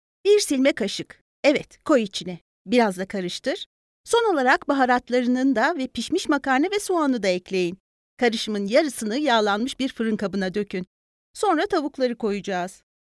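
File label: Turkish, female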